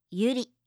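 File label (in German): "yuri", japanische Mädchennamen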